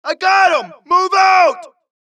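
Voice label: suppress, dialogue, Allies; mohaa; Mission 2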